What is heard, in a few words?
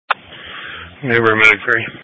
EMS Dispatch